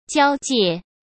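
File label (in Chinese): "交界", Mandarin Chinese; hsk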